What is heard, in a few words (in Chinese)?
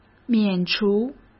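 "免除", Mandarin Chinese; chinese-flashcards; hsk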